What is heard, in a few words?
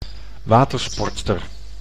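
"watersportster", Dutch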